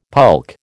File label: "pulque", American